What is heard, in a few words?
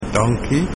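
thanks, Afrikaans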